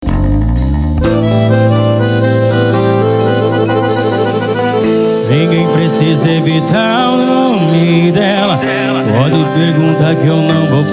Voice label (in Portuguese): locutor a voz magnifica